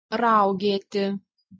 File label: "raugeti", Lithuanian